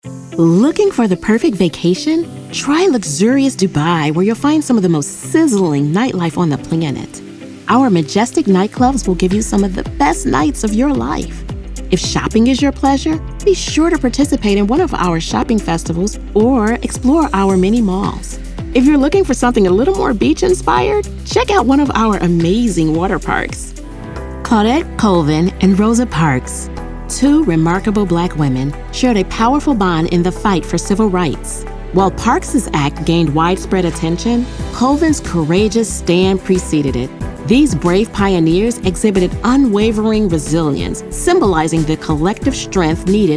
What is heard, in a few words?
Narrative; Narrative Demo; 3rd Person Dialogue